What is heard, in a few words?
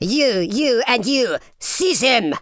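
"go away", male villain voice; speech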